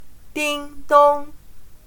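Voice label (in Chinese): "叮咚" Mandarin Chinese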